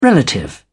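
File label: "relative", British English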